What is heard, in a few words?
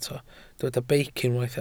"beic", Welsh